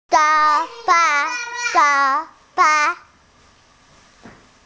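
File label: "sha1 fa1", Mandarin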